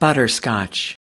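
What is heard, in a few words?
"butterscotch", US English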